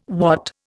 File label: "watt", Indian